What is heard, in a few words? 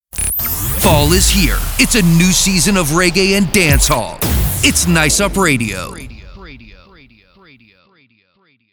Radio Sweeper